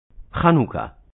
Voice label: "Hanukkah", Hebrew